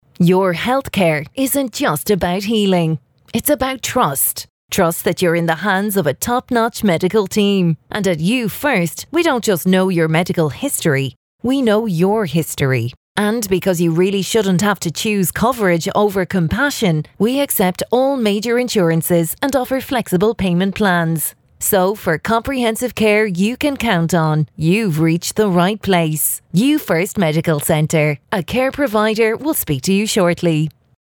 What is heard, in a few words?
Professional